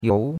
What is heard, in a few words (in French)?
"you2", chinois; Cours-de-Chinois